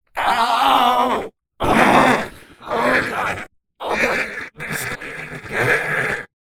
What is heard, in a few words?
Test of Vocal Shift